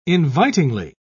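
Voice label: "invitingly", British English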